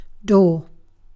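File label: "door", British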